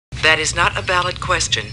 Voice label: Star Trek Computer Voice; StarTrekLCAR